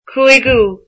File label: fifth, irish